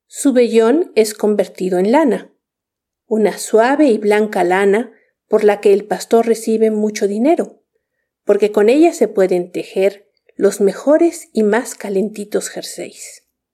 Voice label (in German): spanisch